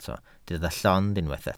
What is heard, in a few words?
"llond", Welsh